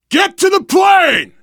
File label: arrive plane, voice, biker; Left 4 Dead 2; left4dead2 dlc3; survivor